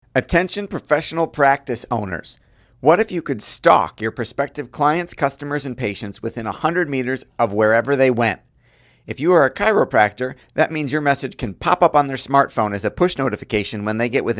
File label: commercial; Stalker Tags